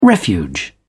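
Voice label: "refuge", English, uk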